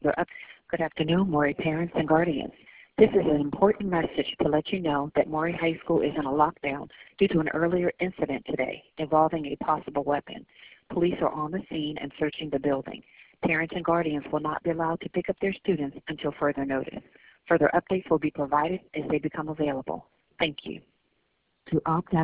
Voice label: voicemail